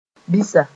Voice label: "bisa", Moore